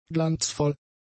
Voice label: "glanzvoll", German; adjectives